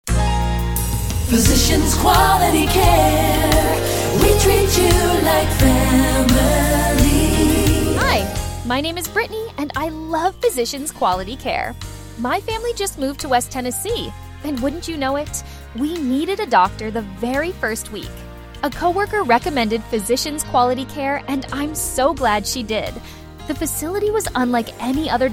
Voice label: testimonial